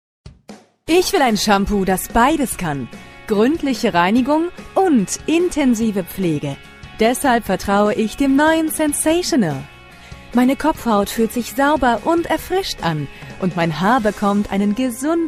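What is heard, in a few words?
german female commercial; voice demos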